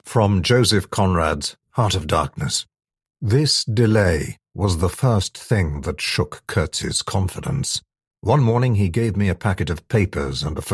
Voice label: AUDIOBOOK